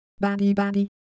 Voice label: Ewe Motion, IdeoPhones